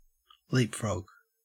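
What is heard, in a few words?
"leapfrog", Australian English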